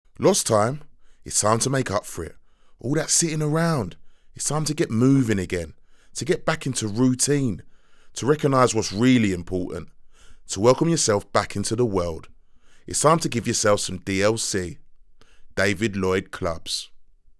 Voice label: VO DRY HOME MIC

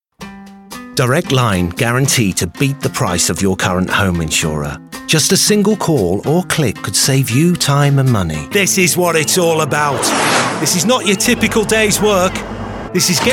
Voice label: characterful; Andrew Tiernan

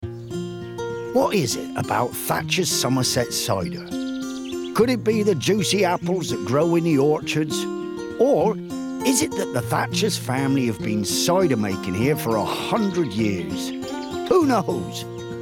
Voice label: Commercial, rp; Montage

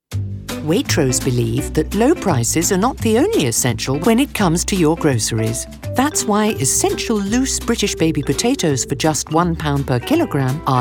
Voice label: commercial, 40s

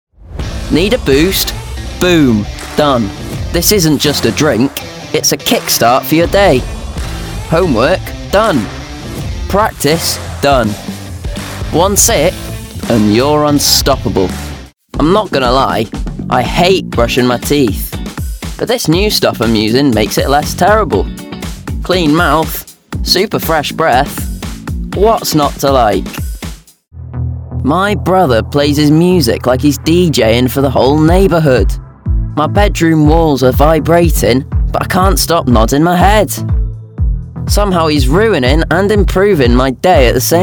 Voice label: voice, light

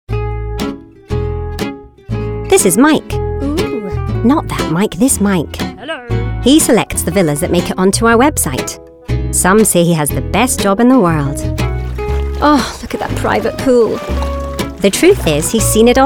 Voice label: Commercial, scottish

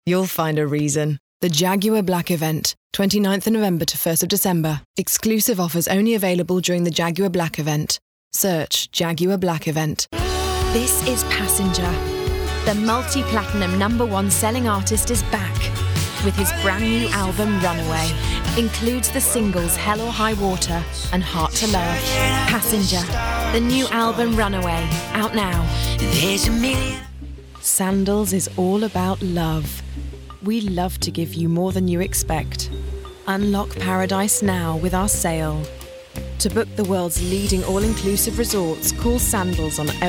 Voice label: COMMERCIAL, laidback

